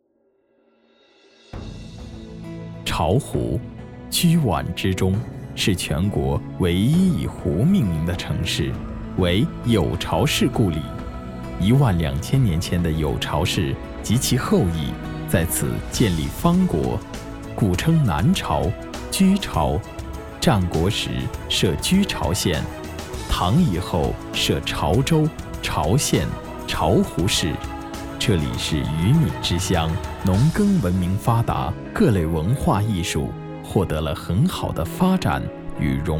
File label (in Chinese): 男 专题 城市宣传片; 巢湖城市宣传片